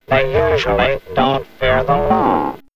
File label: Man Machine Fear D Law, robot vox; X-Static Goldmine